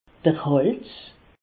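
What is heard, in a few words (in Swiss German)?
"hals", Alsatian; medecine; cou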